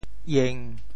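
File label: "ieng1", Teochew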